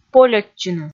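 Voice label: "полӧдчыны", Komi, female; kpv audio dict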